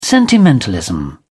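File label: "sentimentalism", British English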